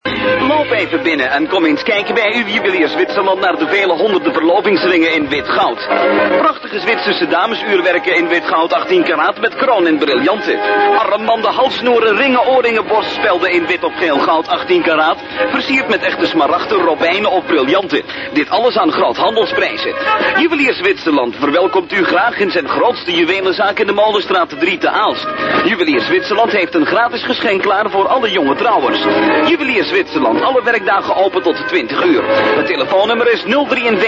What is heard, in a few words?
commercial